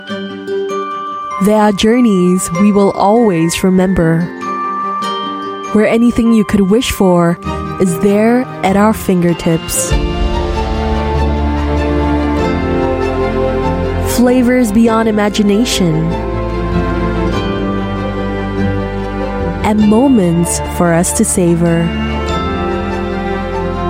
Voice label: Asian English Sophisticated; Qatar